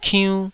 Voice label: "kiunn2", taiwanese syllables